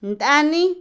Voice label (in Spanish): preguntar, Otomí, mujer; INALI